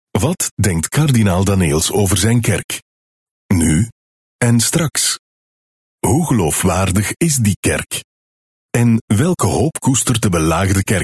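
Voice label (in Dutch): Flemish Male Sample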